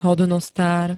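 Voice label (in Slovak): "hodnostár", slovenské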